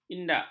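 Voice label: "indak", Malay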